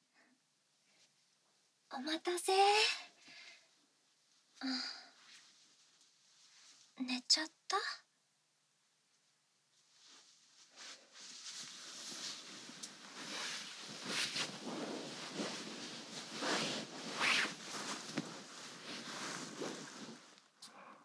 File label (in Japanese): 添い寝; 添い寝～Merry X'mas～